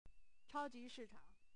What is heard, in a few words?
"cha1ji2shi4chang3", Chinese